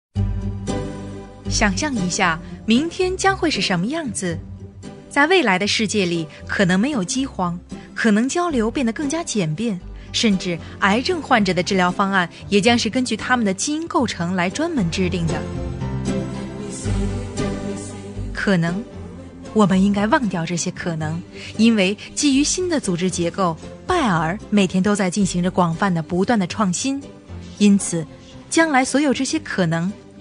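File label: China, female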